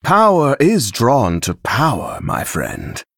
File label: Male Dragon; After Shop Upgrade